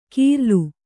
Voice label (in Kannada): "ಕೀರ್ಲು", Kannada